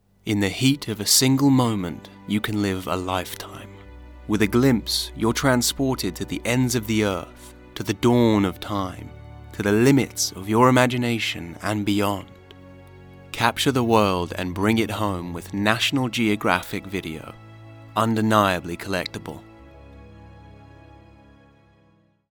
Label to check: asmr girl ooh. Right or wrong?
Wrong